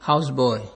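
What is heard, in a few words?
"houseboy", Nigerian Pidgin, male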